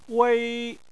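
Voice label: "wei", Chinese, first tone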